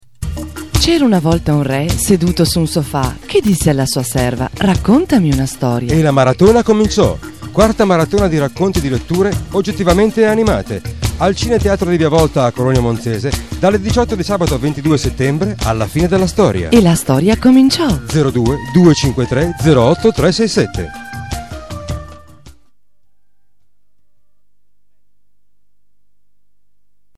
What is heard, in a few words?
spot